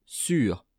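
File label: "sur", French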